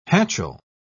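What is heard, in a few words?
"hatchel", British English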